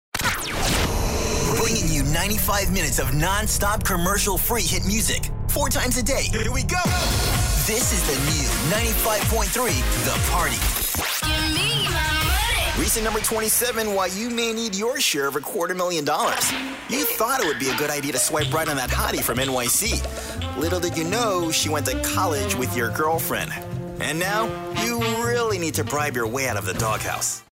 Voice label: Top40 Radio Imaging